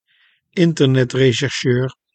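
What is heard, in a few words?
"internetrechercheur", Dutch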